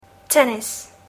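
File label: "tennis", English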